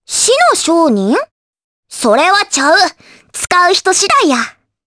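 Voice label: voice dia, voices heroes, Japanese; Requina; kingsraid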